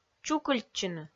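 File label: "чукыльтчыны", Komi, female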